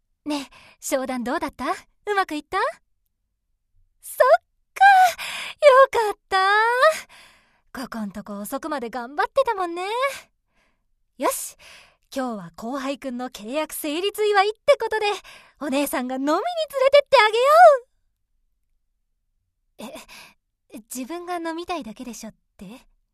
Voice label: Senior office lady